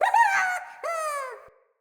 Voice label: Sprout Vocal Surprised; Friendly; Sproutfolk; Fey Hub Sprout

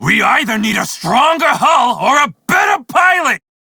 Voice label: crew ship low, male; Dialog; en; Warframe; Railjack Crew; Red Veil

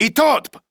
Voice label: Cover Me, Corpus Male; Warframe; Modular Corpus; Battle Barks; en

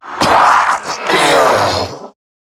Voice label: Death, en; Zariman Wraith

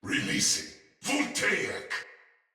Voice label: Attack, Barks Battle Soldier; Sinecure; Ode Tech

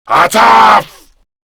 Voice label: Attack Grineer Male; Warframe; Battle Barks

